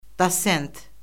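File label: "dosent", Azerbaijani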